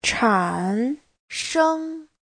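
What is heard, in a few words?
"chǎnshēng", Mandarin Chinese; 产生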